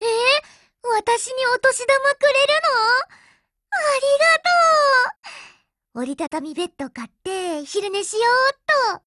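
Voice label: new year, Japanese; Jatimatic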